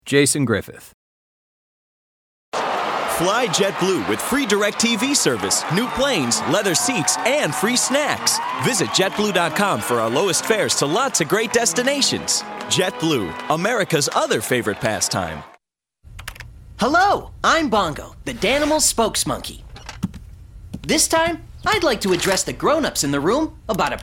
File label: commercial; Innovative LA